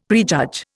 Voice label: "prejudge", Indian; Dictionary